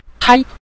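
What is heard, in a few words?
"tai", Mandarin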